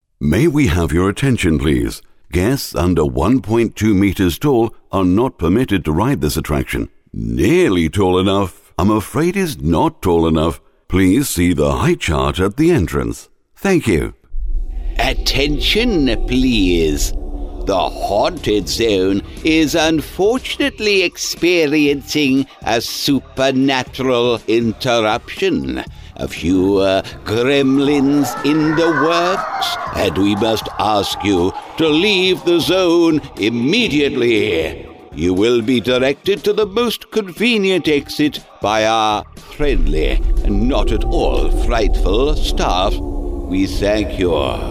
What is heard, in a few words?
THEME PARK Voice